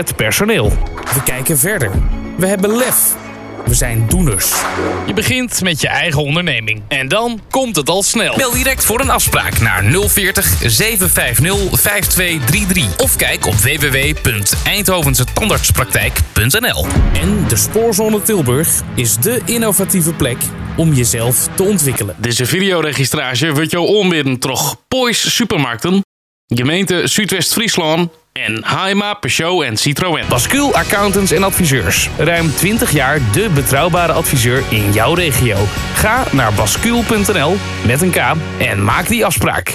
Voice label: VOICE OVER